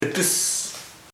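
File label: vein vena, Chamacoco word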